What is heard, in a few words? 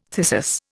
"thyrsus", Indian